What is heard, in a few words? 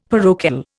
"parochial", Indian; Dictionary